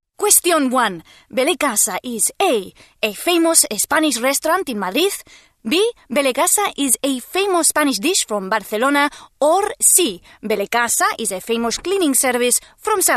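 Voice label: Spanish English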